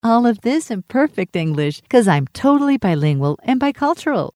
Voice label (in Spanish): Conversacional Espanol English